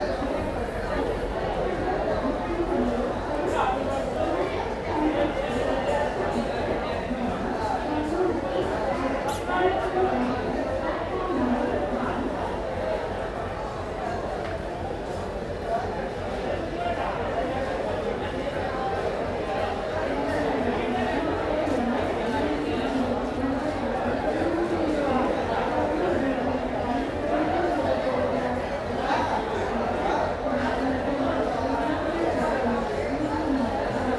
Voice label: street voices ambient, South India; South India street recordings

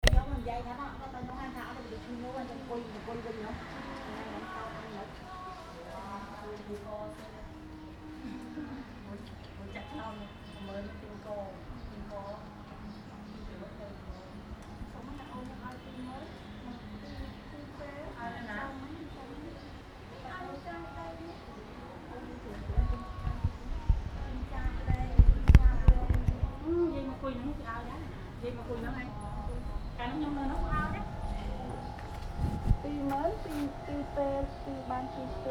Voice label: voice buzz quiet, Cambodia phnom penh; 2019

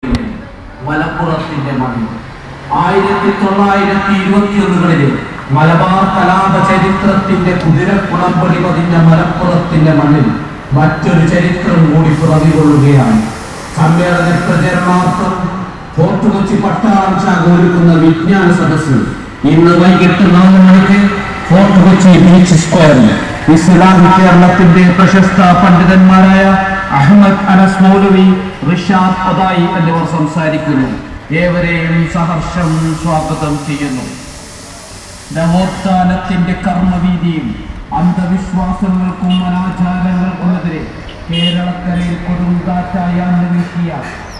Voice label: voices strange, South India street recordings

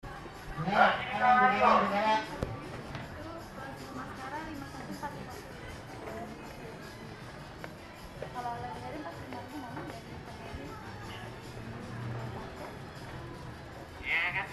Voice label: jakarta strange voice

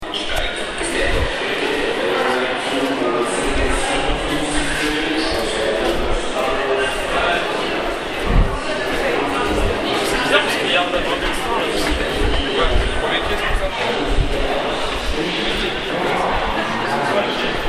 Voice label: echo train voice, street recordings

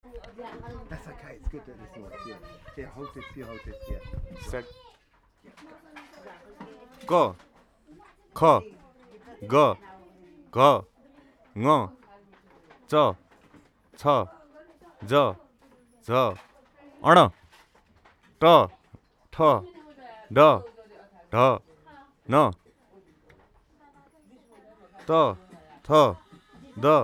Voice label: nepalese alphabet; Kathmandu Ambient sounds

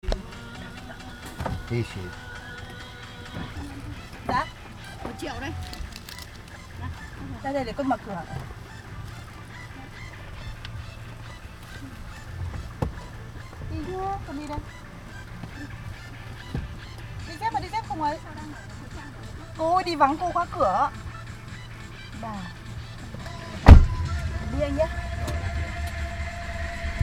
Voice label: voices short, Vietnam; 2019

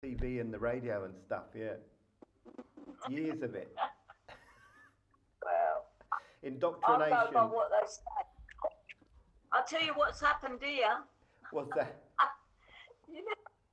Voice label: parents on phone; 2019

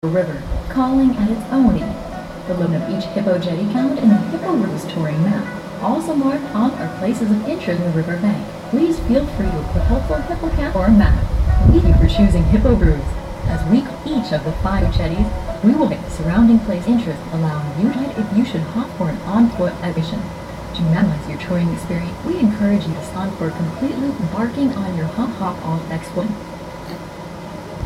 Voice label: singapore voice over

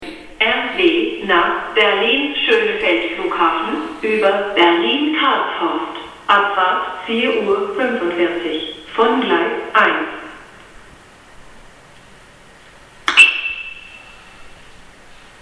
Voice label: train voice german

